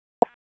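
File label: Raleigh Police Southeast District Dispatch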